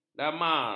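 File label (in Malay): "damar", Malay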